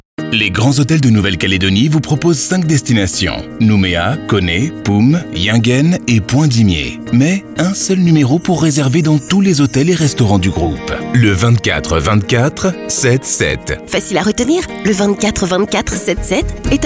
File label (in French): radio spot